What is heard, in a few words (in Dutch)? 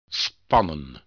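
"spannen", Dutch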